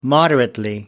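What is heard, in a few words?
"moderately", British English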